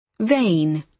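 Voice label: "vein", British English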